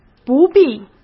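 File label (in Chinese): "不必", Mandarin Chinese; hsk; chinese-flashcards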